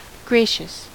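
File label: "gracious", US English; pronunciations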